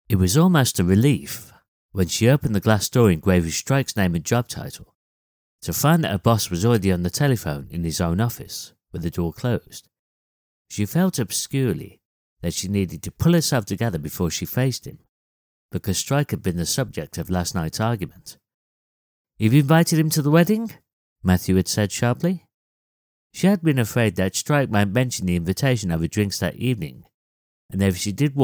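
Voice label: English, British, male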